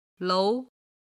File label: "lou2", chinese